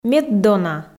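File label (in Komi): "меддона", Komi, female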